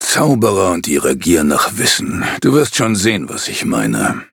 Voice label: loading, de; cookierunwiki